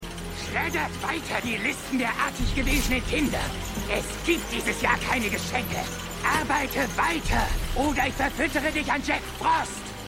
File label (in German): Boeser Lakai; Young Rock